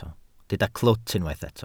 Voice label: "clwt", Welsh